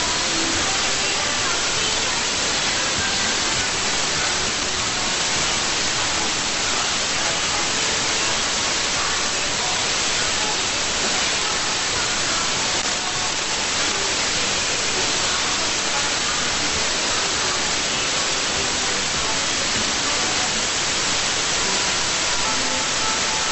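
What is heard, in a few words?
Radio Thailand Original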